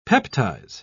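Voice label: "peptize", British English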